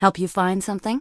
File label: Human Female Warrior NPC Vendor